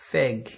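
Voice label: "feg", Nigerian Pidgin, male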